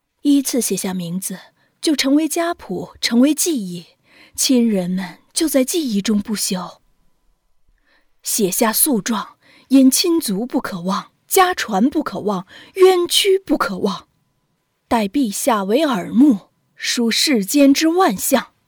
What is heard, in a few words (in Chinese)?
游戏配音; 上官婉儿